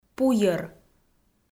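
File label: "пуйӧр", Komi, female; kpv audio dict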